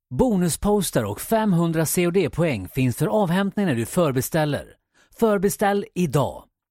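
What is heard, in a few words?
Swedish Male Tutorial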